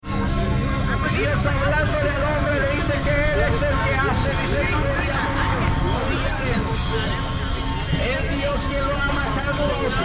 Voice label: Preacher